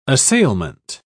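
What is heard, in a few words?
"assailment", British English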